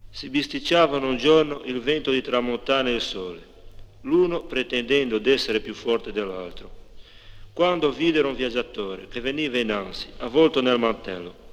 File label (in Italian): Favola Genova